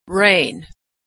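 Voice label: "rain", American English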